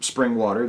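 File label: "springwater", US English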